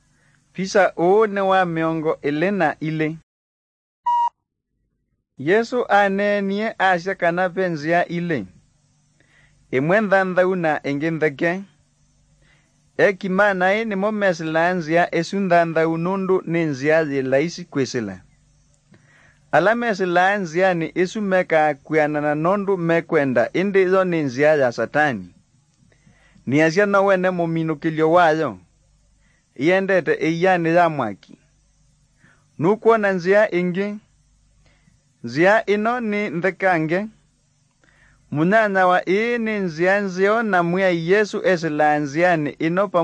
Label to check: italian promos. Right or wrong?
Wrong